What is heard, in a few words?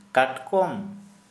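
"crab", Santali, male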